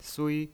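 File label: "sui", Mandarin Chinese pinyin, first tone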